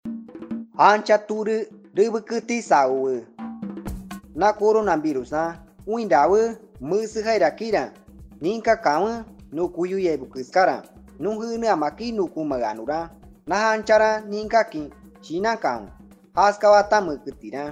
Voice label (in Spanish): cashinahua uso de mascarilla 1 voz, spot de radio; medidas de prevencion